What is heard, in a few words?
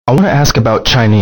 ask chinese